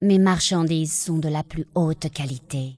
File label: elf, female; marchandises qualite; PhoenixCraft-1.16.5; PhoenixNPCPack; minecraft